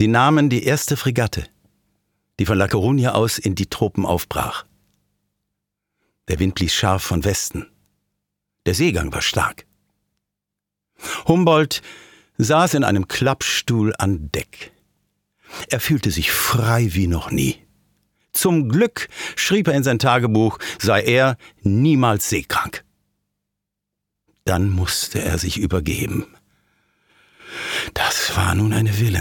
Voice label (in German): Hoerbuch